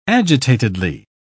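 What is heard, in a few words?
"agitatedly", British English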